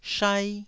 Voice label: "shai1", taiwanese Syllables